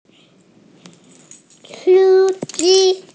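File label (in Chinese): "si1ji1", Mandarin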